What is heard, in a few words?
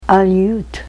aleut, Ninilchik Russian; nationalities